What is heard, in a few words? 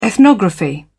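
"ethnography", British English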